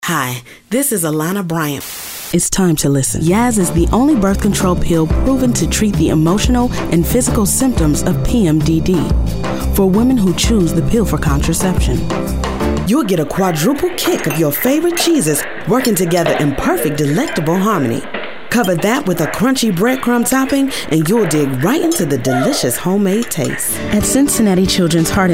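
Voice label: voice over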